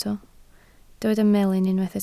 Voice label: "melyn" Welsh

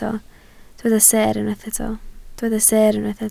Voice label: "seer", Welsh

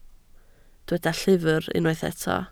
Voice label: "llyfr", Welsh

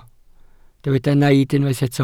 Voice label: "naid" Welsh

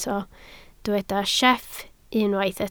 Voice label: "sieff", Welsh

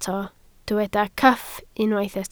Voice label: "cyff", Welsh

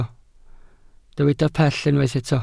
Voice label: "pell", Welsh

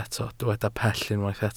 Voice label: "pell", Welsh

